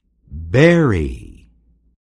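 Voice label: "bury", English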